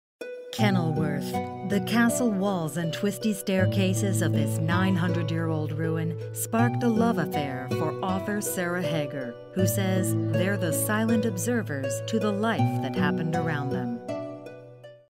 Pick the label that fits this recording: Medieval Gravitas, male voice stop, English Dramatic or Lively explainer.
Medieval Gravitas